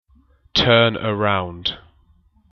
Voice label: turnaround voice